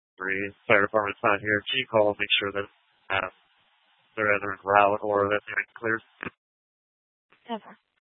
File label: Tempe PD, scanner; Rgnl Wireless Co-op (RWC)